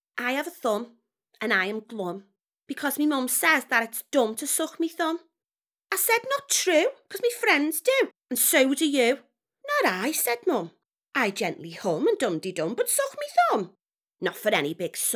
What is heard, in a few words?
Voiceover childrens Infomercials; Thumbs